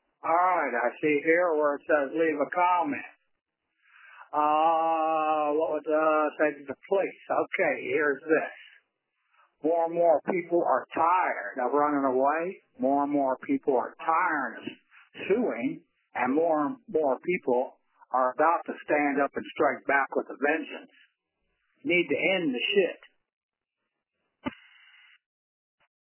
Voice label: voice message